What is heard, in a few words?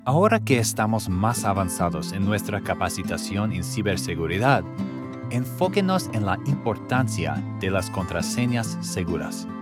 eLearning Cybersecurity Spanish